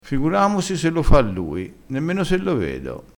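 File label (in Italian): "figuramosi", vocabolario dialettale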